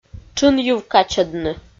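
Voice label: "тшын йыв качӧдны", Komi, female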